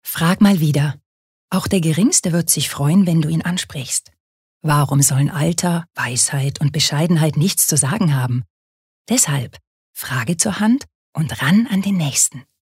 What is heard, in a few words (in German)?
Werbung Sanft